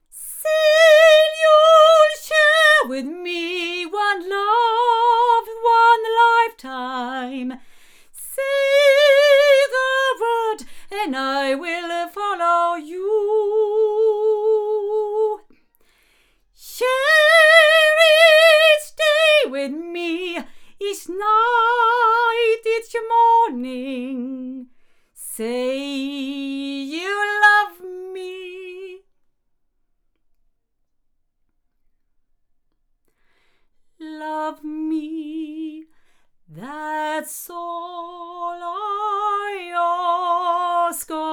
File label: Avant CV-12 tube omni female